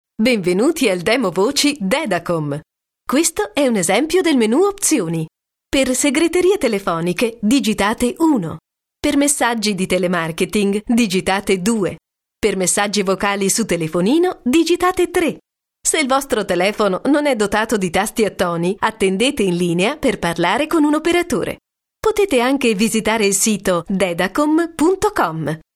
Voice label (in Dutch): Voice over Italiaans vrouw